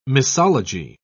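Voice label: "misology", British English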